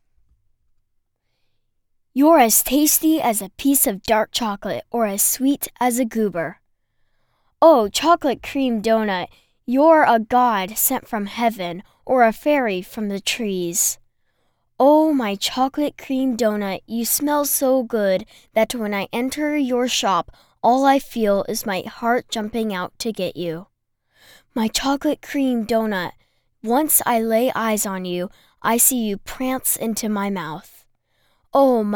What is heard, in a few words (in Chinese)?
美式童声 叙述